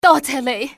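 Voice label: "thank", female; XBOX; civ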